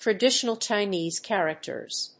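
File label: "Traditional Chinese characters", English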